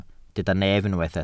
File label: "nef", Welsh